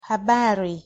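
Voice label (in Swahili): "habari", Swahili pronunciation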